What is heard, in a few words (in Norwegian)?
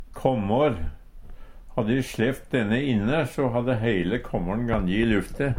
kåmmår, Numedalsmål